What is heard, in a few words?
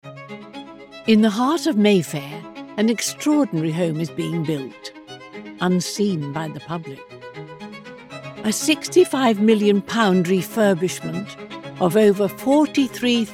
TV Narration